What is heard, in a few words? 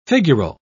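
"figural", English, British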